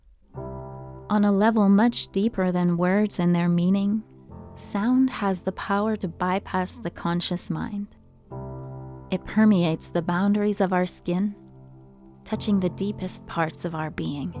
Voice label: Mood Voice, Narrative, English US